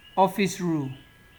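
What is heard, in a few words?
"office rule", Nigerian Pidgin, male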